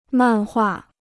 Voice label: "漫画", Chinese; màn huà

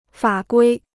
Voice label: "法规", Chinese

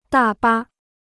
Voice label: "大巴", Chinese

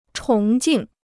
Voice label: "崇敬", Chinese

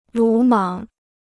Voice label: "鲁莽", Chinese

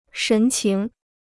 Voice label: "神情", Chinese

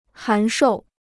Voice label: "函授", Chinese

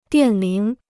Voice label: "电铃", Chinese

